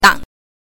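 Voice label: "dang", Mandarin Chinese pinyin, neutral tone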